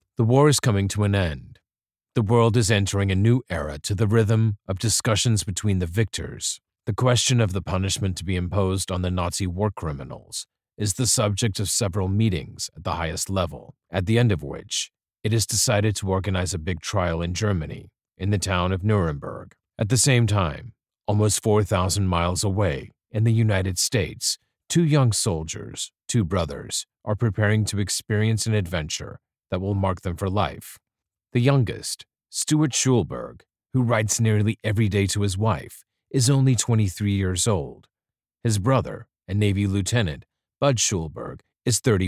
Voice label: english american documentaries

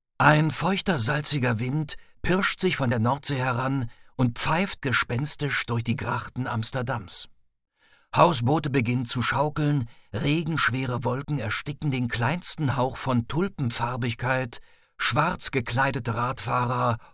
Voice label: german narration

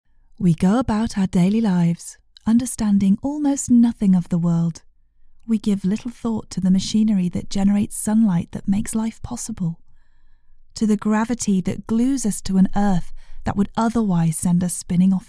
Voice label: english british narration

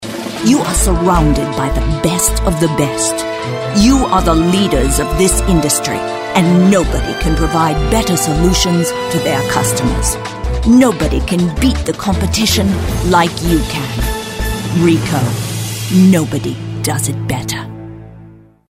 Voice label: english american impersonations

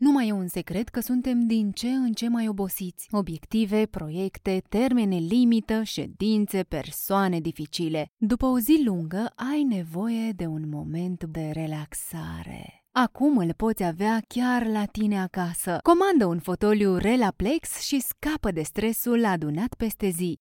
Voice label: romanian animation

